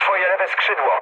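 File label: left wing, mood low, Polish speech; War Thunder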